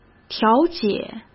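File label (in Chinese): "调解", Mandarin Chinese; hsk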